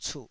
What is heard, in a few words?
"cuh3", Taiwanese syllable